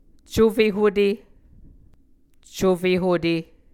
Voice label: "cufe hute", muscogee